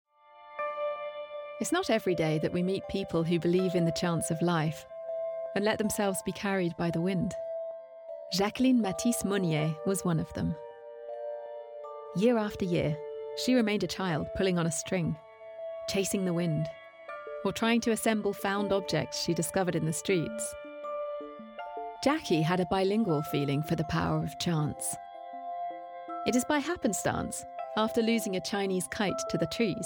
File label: narration; jacqueline matisse monnier